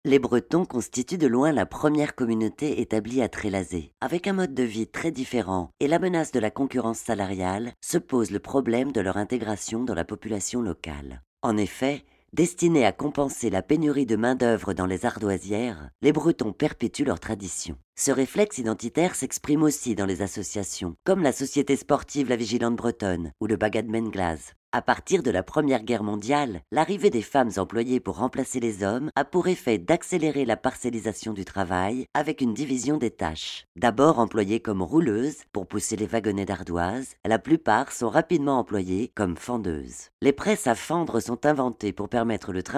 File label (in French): Audioguide; Musee des ardoisieres